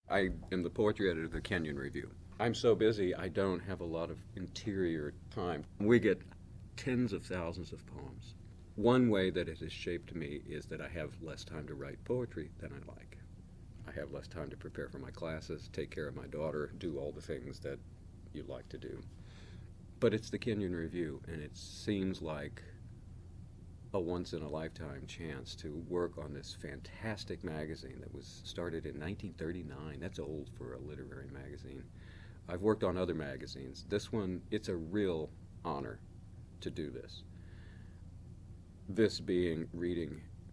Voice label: conversation